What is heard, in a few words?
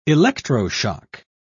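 "electroshock", British English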